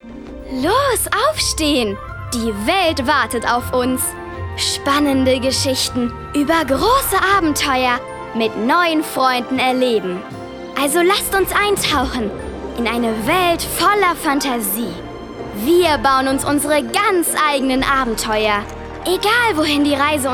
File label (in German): Spot